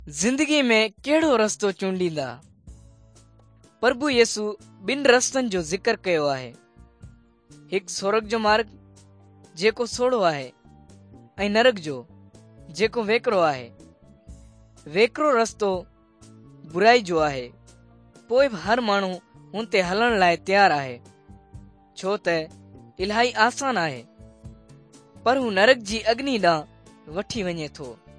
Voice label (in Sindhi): good news sindhi dukslinu, drama